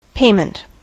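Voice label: "payment", US English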